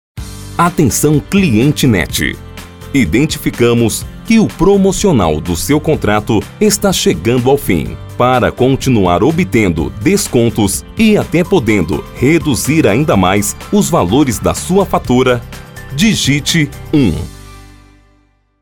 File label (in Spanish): espera telefonica voz locutor